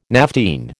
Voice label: "naphthene", American

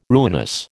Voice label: "ruinous", American

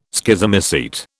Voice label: "Schizomycete", British

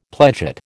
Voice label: "pledget", American

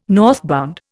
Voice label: "northbound", Indian

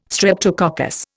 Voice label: "streptococcus", Indian

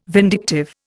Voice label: "vindictive", Indian

